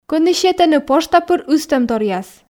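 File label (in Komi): Komi, кӧні сетӧны пошта пыр ыстӧмторъяс, female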